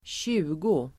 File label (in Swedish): "tjugo", Swedish